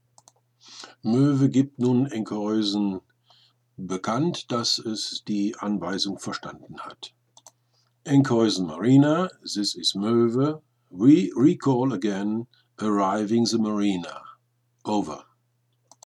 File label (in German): Liegeplatz Einverstaendnis, Funk Seefunk; Enkhuisen Marina; Sailingyacht Möve; routine; short range certificate sprechfunkbeispiele nach imo vorgabe